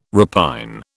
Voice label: "repine", British

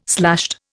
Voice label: "slashed", Indian

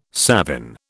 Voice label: "savin", British

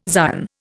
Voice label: "Zion", Indian

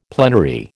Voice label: "plenary", American

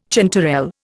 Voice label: "chanterelle", Indian

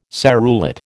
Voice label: "serrulate", American

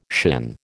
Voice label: "shin", American

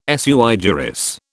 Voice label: "sui juris", British

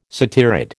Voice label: "satyrid", American

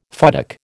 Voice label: "futtock", American

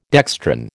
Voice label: "dextrin", American

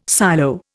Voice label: "silo", Indian

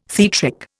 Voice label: "theatric", Indian

